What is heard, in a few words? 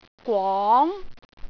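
"gwong2", Cantonese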